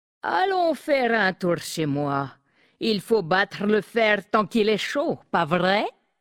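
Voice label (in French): French Femme Flirt; Nain sombrefer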